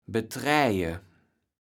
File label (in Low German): "betraeje", kaevels platt